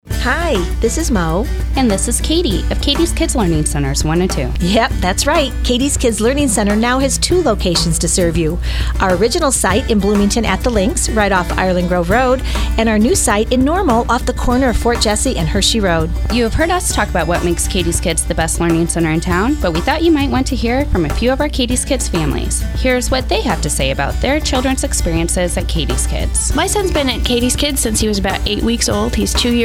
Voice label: Testimonial